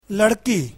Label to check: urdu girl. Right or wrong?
Right